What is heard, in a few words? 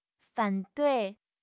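"fan3 dui4", Mandarin Chinese; HSK4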